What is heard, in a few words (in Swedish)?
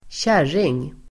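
"kärring", Swedish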